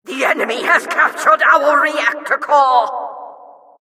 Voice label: announcer capture flag enemy captured, vo